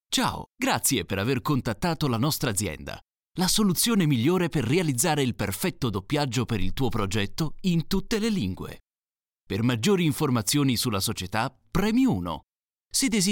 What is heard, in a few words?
italian ivr